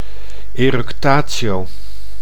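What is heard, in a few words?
"eructatio", Dutch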